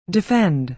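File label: "defend", American English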